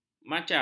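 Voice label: "macam", Malay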